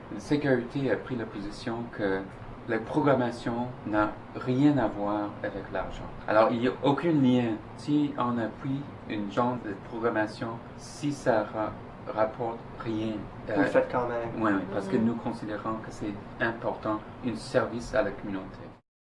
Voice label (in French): pub ethique; Position de CKUT sur l’éthique et la publicité